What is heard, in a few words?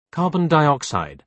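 "carbon dioxide", British English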